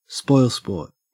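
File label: "spoilsport", Australian English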